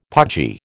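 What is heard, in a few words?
"pudgy", American English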